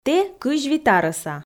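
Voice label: "тэ 25 арӧса", Komi, female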